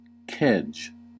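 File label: "kedge", American English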